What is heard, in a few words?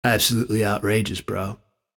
Special Outrageous; wild water